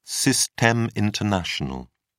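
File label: "systeme international", British English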